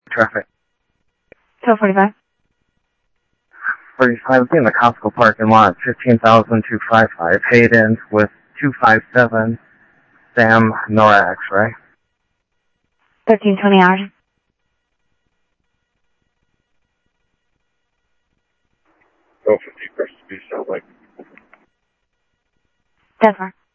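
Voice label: police department, scanner audio trunk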